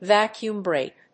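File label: "vacuum brake", English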